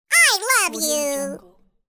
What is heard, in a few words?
cartoon voice "I love you"